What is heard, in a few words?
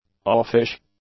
"offish", english; adjectives